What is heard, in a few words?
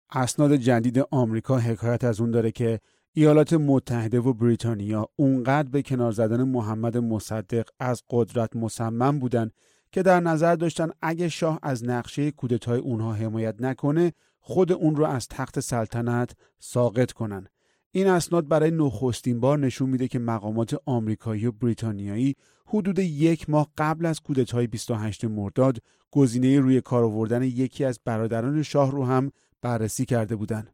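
Persian Audiobook; GoLocalise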